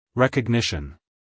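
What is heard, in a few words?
"recognition", American English male; everyone-can-use-english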